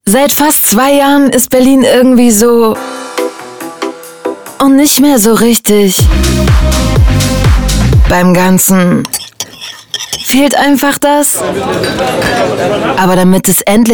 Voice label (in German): Radiowerbung Impfen Klingt alles so schwarz weiss; BVG